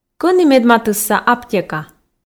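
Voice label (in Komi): кӧні медматысса аптека, Komi, female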